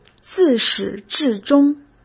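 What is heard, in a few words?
"自始至终", Mandarin Chinese; hsk; chinese-flashcards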